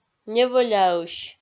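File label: "невӧляысь", Komi, female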